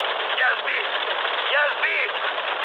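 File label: Russian aircraft destroyed mood low, speech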